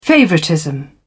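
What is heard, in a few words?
"favoritism", British English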